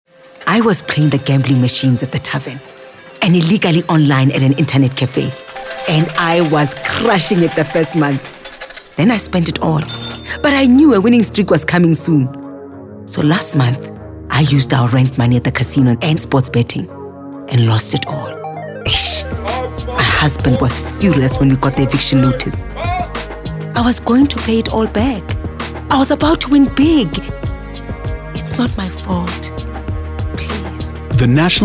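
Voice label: English, Awareness Campaigns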